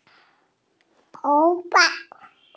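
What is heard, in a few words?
"tou2 fa5", Mandarin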